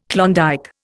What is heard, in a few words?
"Klondike", Indian